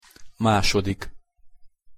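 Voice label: "masodik", Hungarian pronunciation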